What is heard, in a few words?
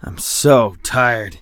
tired; Escape from Tarkov; usec2